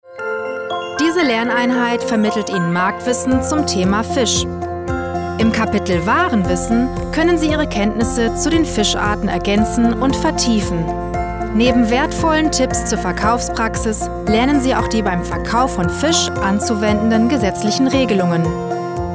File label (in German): de e-learning